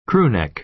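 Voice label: "crew neck", American English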